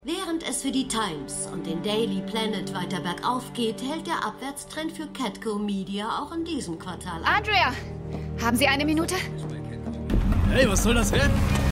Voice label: Nachrichtensprecherin Taxifahrer; Supergirl

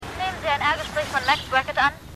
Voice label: Telefonstimme

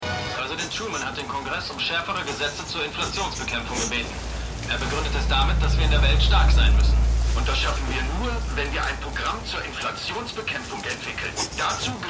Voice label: Nachrichtensprecher; Truman; Fargo

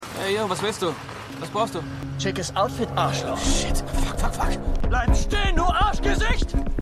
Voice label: Dealer Mann Auto; Stimmproben; City On A Hill

